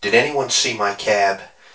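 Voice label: male reverberant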